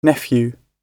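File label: "nephew" British English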